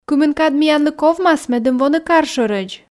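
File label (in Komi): кымын кад миянлы ковмас медым воны кар шӧрӧдз, Komi, female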